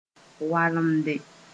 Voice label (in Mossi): "walemde", Mooré